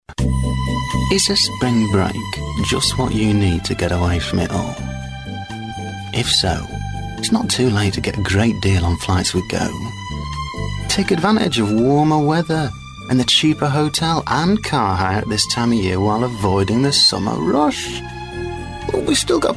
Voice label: Commercial; Go; Vocal Point

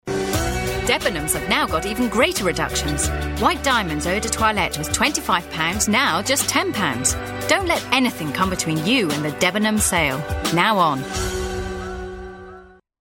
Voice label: Commercial; Debenhams; Vocal Point

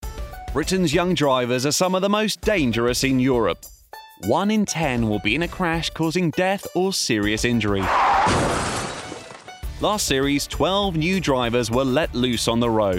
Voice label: Documentary; Barely Legal Drivers; Vocal Point

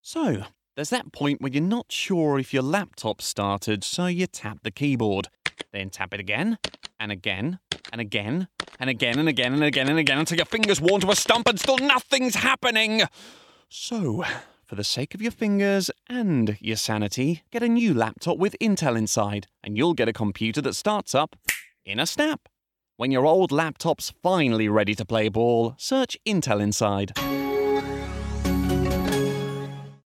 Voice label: Natural; Intel; Vocal Point

